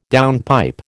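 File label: "downpipe", American English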